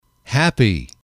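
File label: "happy", English, male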